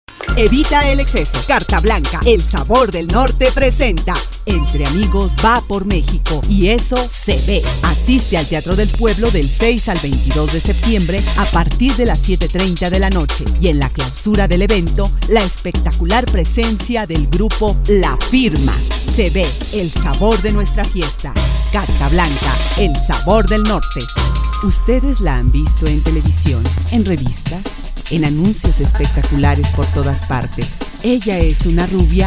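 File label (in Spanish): Demostración comercial, Spanish voice over; Spanish (Latin American)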